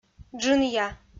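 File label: "джынъя", Komi, female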